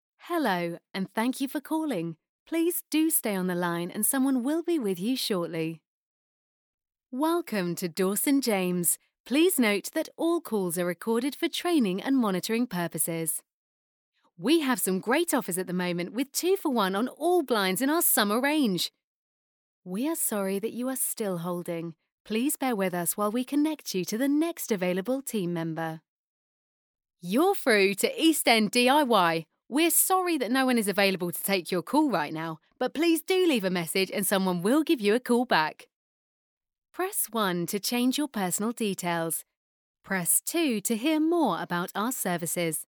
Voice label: IVR